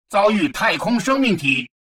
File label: advisor notification spaceborne encounter, chinese; Stellaris